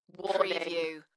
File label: UK female, Warning, Dalekness; Tech / Sci-fi; beanstalk audio